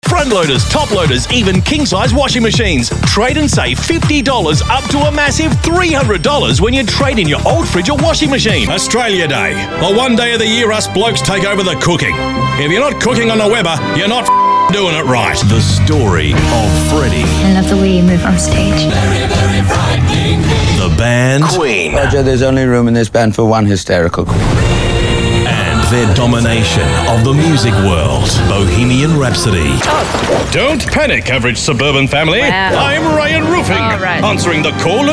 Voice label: male talent retail